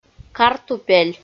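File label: "картупель", Komi, female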